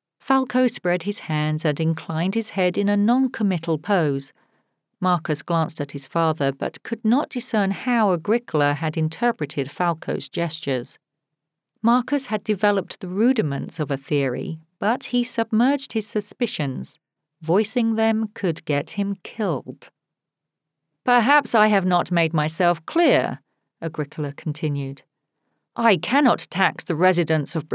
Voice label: Audiobook Sample